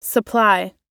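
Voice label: "supply", English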